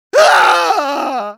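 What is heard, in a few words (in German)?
Medic pain critical death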